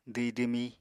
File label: "dwhi-dwmí", Apatani